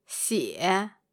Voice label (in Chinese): "xie3", Chinese